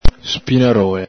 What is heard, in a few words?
"spinarola", Veneto